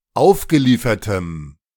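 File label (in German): "aufgeliefertem", German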